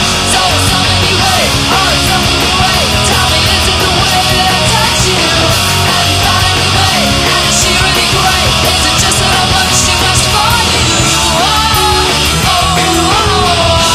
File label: Stutter